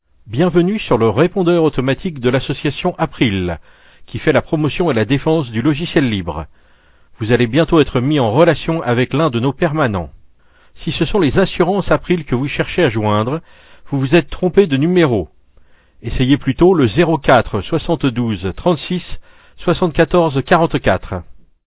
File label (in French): Message repondeur; asterisk